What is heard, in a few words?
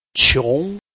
"qiong2", Chinese